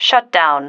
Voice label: "shutdown", female